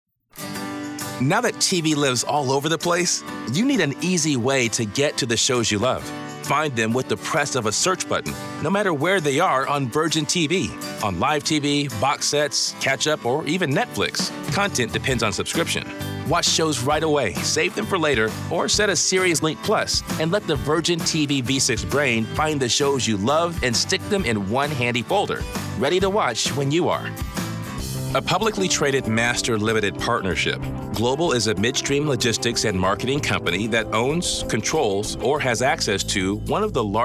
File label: Narration, Male, whiteboard explainer